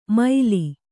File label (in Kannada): "ಮೈಲಿ", Kannada